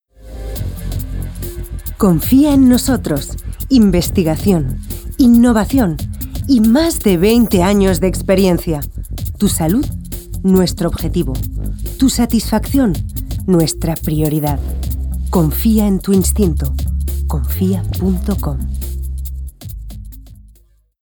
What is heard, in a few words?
Institutionnel ESP, voice talent; Voz cálida y cercana, de timbre medio, envolvente y con carácter.; Enterprise